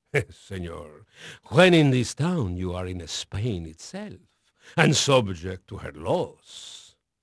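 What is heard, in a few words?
Spanish male, voice; Pirates Of The Caribbean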